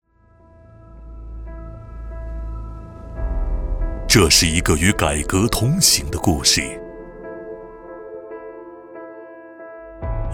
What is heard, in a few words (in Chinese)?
男 地产专题 浑厚; 金茂上海